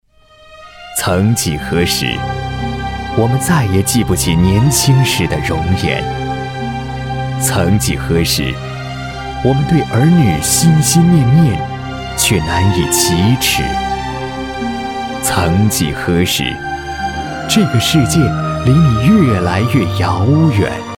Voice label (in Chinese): 男 产品介绍; 联想记忆被